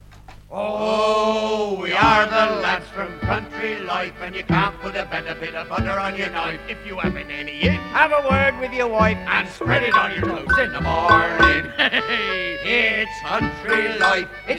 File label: Radio Hallam Advert; Country Life Butter